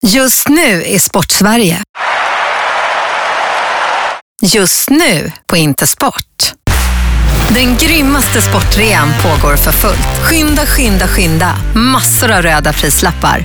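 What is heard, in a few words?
SWE F commercial, Swedish, female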